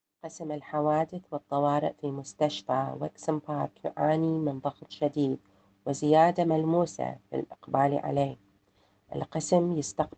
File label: arabic system pressure, High pressure at A&E - patient messages